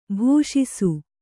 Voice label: "ಭಾಷಿಸು", Kannada

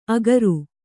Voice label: "ಅಗರು", Kannada

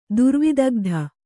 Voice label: "ದುರ್ವಿದಗ್ಧ", Kannada

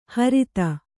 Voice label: "ಹ್ರೀತ", Kannada

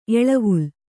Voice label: "ಎಳೆವಲೆ", Kannada

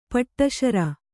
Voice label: "ಪೆಡಸಾರ್", Kannada

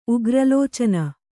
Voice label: "ಉಗ್ರಲೋಚನ", kannada

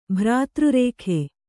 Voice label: "ಭ್ರಾತೃರೇಖೆ", kannada

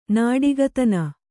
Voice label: "ನಾಡಿಗತನ", Kannada; nāḍigatana

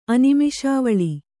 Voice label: "ಅನಿಮೇಷಾವಳಿ", kannada

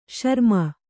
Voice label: "ಶ್ರಮೆ", kannada

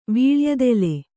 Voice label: "ವೀಳೆಯದೆಲೆ", Kannada

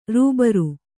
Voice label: "ರಬ್ಬರು", kannada

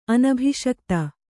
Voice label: "ಅನಭಿಷಕ್ತ", Kannada; anabhiṣikta; not officially enthroned; uncrowned.

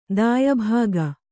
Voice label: "ದಾಯಭಾಗ", Kannada

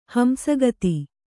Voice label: "ಹಂಸಗತಿ", Kannada; hamsa gati; noun

